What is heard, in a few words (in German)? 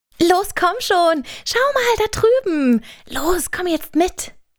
aufgeregt